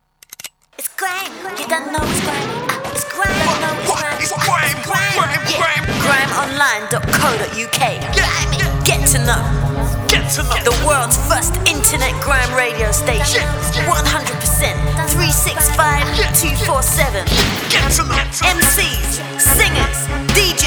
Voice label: ADVERT; GRIMEONLINE